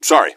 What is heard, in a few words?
sorry, gasmask; scmod